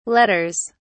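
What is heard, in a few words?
"letters", British English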